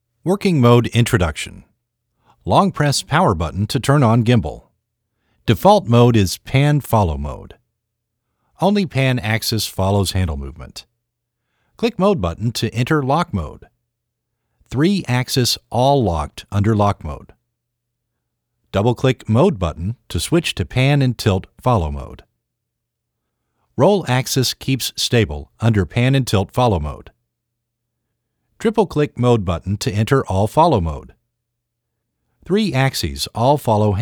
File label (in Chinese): 外籍 英语 美式 口音 操作 说明